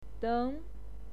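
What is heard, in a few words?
"deng", Mandarin Chinese, second tone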